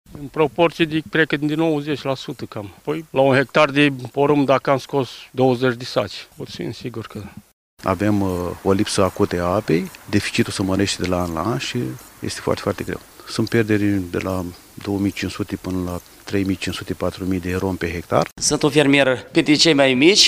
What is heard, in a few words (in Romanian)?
vox pop fermieri